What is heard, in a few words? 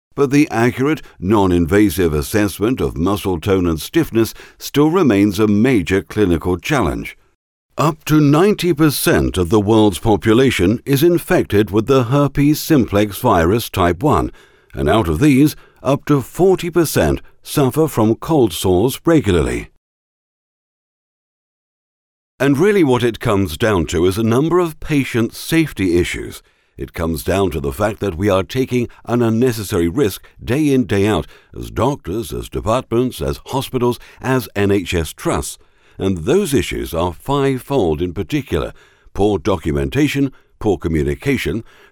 medical and pharma, uk voiceover